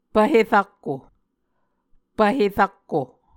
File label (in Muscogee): "pvhe rakko", Muscogee; Mvskoke Words with Repeated Consonants; A Dictionary of Creek/Muskogee; SIL International